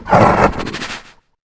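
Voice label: troll voice move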